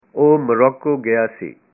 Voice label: he visited Morocco, punjabi

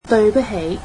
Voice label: "Sorry", Cantonese

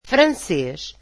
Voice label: "French", Portuguese

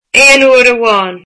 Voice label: once, irish

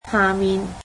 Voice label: "under", Cantonese

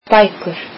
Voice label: icelandic books

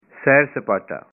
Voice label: tourism, punjabi

